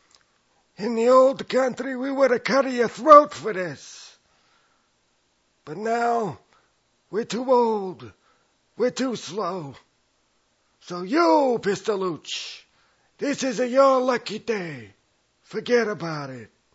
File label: Italiano